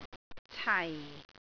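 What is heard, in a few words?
"cai4", Cantonese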